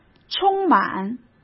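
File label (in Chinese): "充满", Mandarin Chinese; hsk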